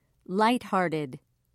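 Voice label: "lighthearted", English, British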